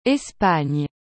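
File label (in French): "Espagne", French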